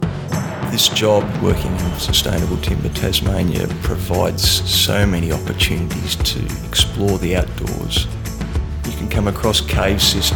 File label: Radio Ad Sign Off